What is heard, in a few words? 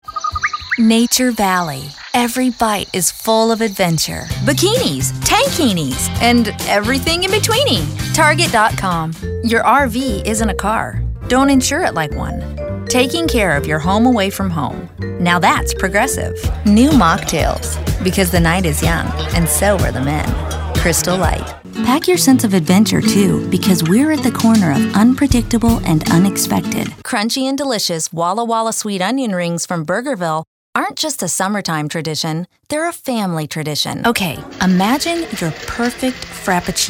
women commercial